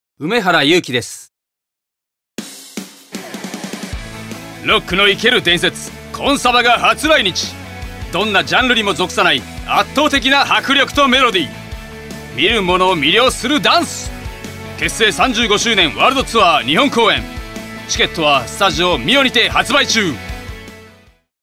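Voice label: commercial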